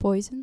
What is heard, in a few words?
"poison", dutch